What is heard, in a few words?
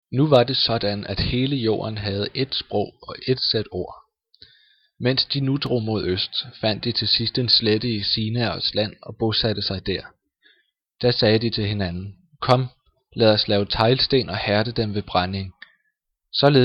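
Danish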